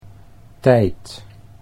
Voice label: "tijd", Dutch